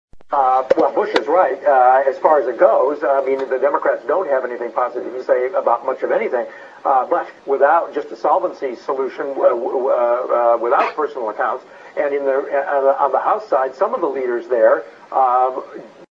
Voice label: babbling fool